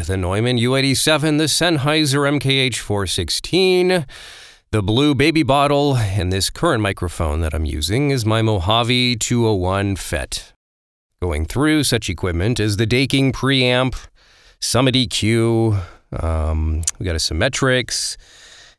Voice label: Dry studio

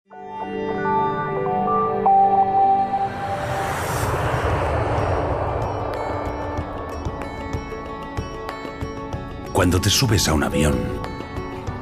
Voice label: Corporate music; Henkel Loctite

